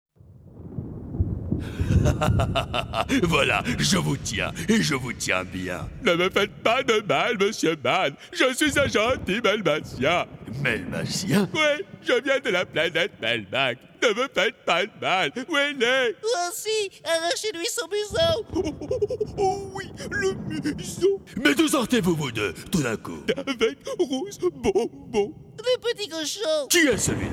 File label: Mix Cartoon